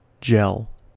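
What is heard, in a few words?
"gel", American English; pronunciations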